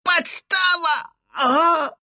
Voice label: death, ru; dota2

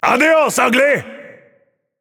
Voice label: Match Slain Enemy, Announcer; Fernando; Paladins

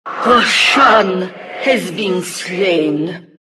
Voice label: announcer; Diretide 2012; rosh

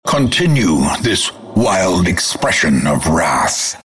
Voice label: announcer unpause

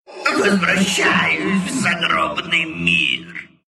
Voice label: death, ru

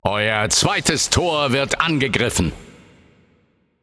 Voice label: Announcer German Match Under Attack Gate Second

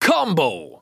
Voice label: Hit Combo, Announcer; First Mate

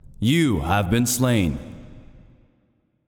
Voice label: Announcer Match Slain You; Paladins; Feyrazzle

